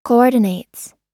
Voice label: "coordinates", us